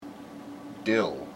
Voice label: "dill", IPA